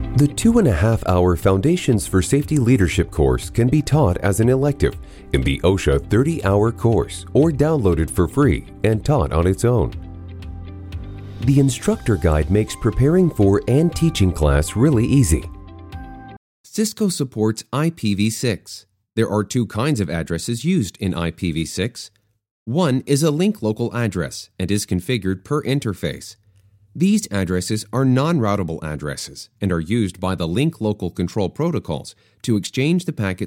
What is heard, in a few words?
eLearning